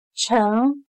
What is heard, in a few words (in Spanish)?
"成", Chino